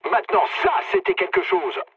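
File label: French trick mood low; War Thunder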